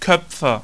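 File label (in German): "koepfe" German; ipa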